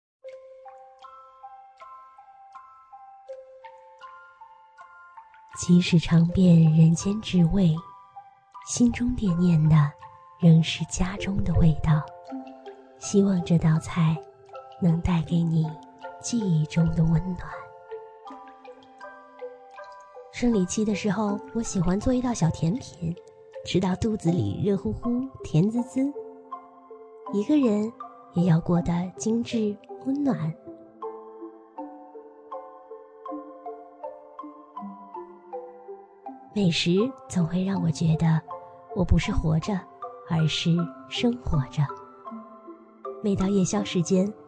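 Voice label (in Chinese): 女广告; 食物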